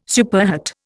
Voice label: "superhet", Indian